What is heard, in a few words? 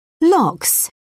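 "lox", British English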